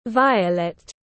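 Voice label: "Violet", English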